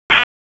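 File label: Raleigh Police Southwest District Tactical, p25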